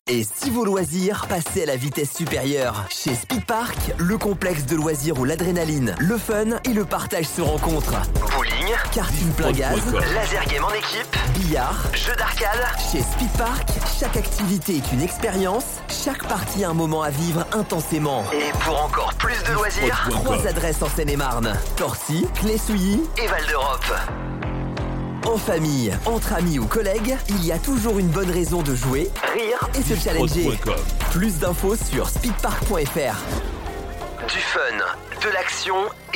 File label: Spot; Speed Park; Seine et marne